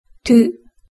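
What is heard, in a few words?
"tu", Japanese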